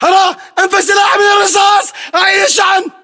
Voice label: inform reloading generic, english battlechatter

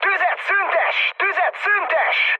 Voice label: player friendly fire, mood high, speech hun

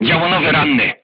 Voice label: voice message gunlayer wounded, polish

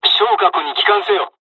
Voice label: dialogs japanese; War Thunder

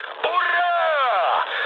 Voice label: player killed enemy, mood med, speech ru

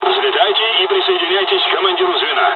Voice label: takeoff, dialogs russian; midway jpn; War Thunder

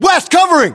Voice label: US response covering west, battlechatter, english

